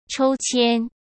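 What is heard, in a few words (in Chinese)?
"抽签", Mandarin Chinese; chinese-flashcards; hsk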